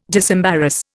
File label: "disembarrass", Indian